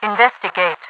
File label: investigate, radio voice; Counter Strike Source; npc; overwatch